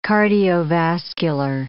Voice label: "cardiovascular", English

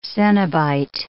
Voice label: "cenobite", English

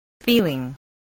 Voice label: "feeling", spelling

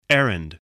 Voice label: "errand", voice, english

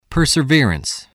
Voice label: "perseverance", voice, English

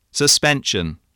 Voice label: "suspension", English

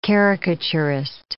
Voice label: "caricaturist", English

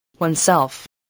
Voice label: "oneself", voice, spelling

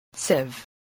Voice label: "sieve", spelling

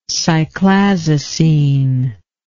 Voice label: "cyclazocine", english

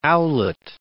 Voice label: "owlet", English

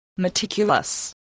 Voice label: "meticulous", voice, spelling

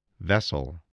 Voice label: "vessel", English

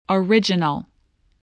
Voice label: "original", English voice

